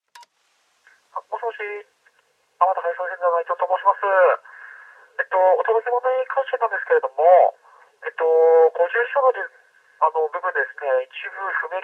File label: waru voice